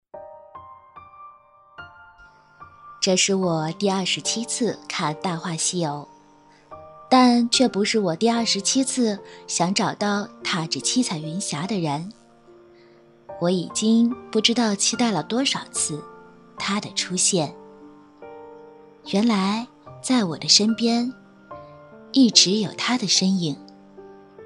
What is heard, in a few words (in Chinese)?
女, 电台, 情感; 在身边